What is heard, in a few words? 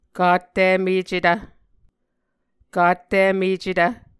"kattimecetv", Muscogee